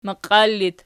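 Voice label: "maqial" locative, Archi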